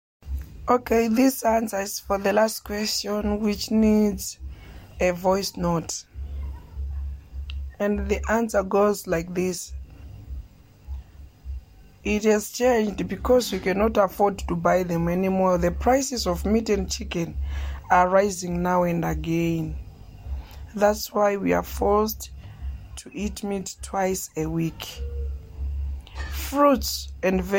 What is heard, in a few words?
Voice Note; Food Security; Food prices have risen and what we eat has changed since the start of the COVID-19 pandemic, it has affected us a lot, we are no longer